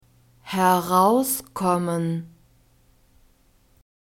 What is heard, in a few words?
"herauskommen", German